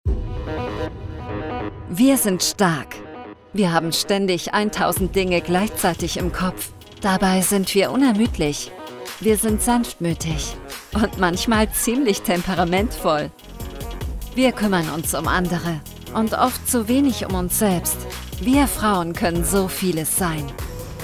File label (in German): infoclip finanzdienstleistung jung empathisch